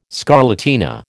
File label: "scarlatina", American